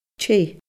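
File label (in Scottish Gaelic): "teth", Scottish Gaelic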